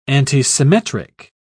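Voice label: "antisymmetric", British English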